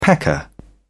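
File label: "pecker", British English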